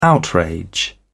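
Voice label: "outrage", British English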